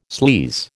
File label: "sleaze", American